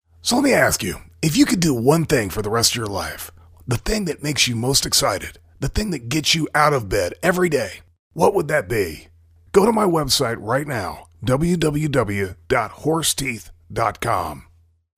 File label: Impression; Tony Robbins